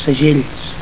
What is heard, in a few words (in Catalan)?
"segells", Catalan